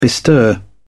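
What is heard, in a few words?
"bestir", British English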